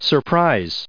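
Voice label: "surprise", US English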